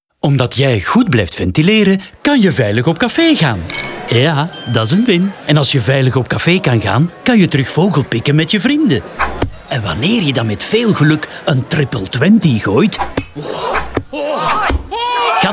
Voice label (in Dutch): Spot